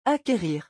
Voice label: "acquérir", French

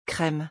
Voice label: "crème", French

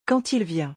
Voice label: "Quand il vient", French

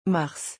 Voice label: "mars", French

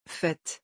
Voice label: "fête", French

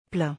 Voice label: "plein", French

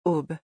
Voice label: "aube", French

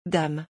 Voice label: "dame", French

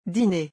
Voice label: "dîner", French

